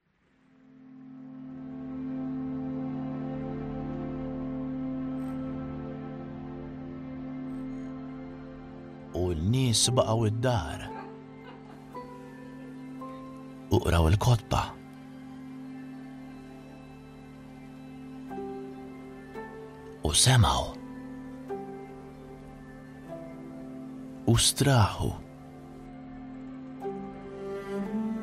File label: Narration Maltese; Narration Demo